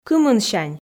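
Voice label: "кымынсянь", Komi, female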